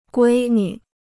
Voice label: "闺女", Chinese

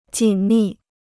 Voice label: "紧密", Chinese

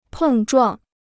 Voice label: "碰撞", Chinese

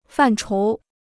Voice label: "范畴", Chinese

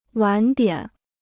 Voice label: "晚点", Chinese; wǎn diǎn; (of trains etc) late; delayed

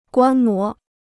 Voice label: "观摩", Chinese

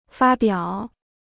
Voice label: "发表", Chinese

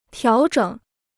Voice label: "调整", Chinese

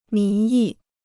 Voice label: "民意", Chinese

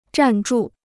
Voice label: "站住", Chinese

